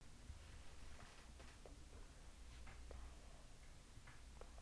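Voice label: "telephone", spoken word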